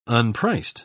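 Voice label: "unpriced", British English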